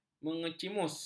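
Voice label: "mengecimus", Malay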